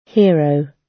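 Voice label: "hero", British English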